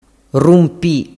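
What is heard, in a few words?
"rumpi", Sicilian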